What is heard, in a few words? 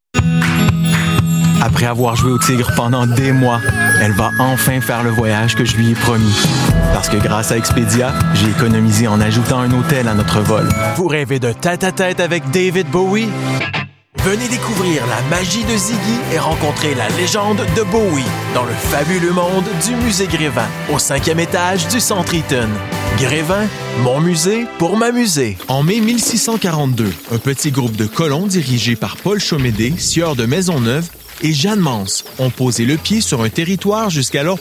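M, French, Canadian, male, COM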